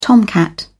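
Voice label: "tomcat", British English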